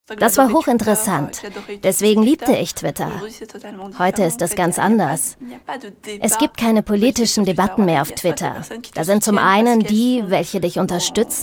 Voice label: voiceover; arte